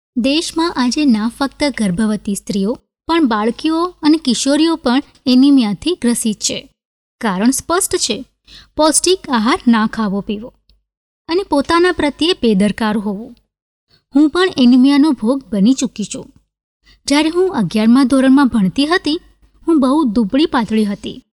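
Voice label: Gujarati F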